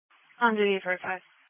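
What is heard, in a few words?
Police Patrol Dispatch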